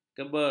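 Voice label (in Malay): "keber", Malay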